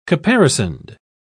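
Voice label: "caparisoned", British English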